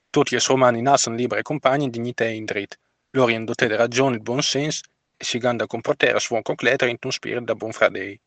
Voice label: Emilian, Emilian is a Romance language spoken mainly in the Emilia-Romagna region in northern Italy.; Emilian is a member of the Gallo-Italic branch of the Romance language family.